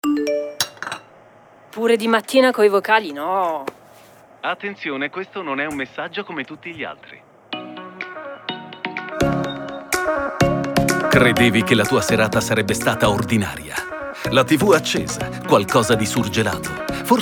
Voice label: TV PROMO